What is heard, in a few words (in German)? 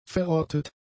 "verrottet", german; adjectives